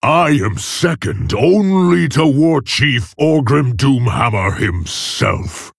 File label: Male Orc Idle; Varok; Uther